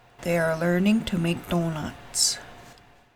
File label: English